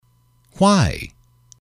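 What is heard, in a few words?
"why", male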